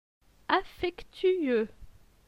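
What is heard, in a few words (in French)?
"Affectueux", French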